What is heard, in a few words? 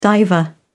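"diver", British English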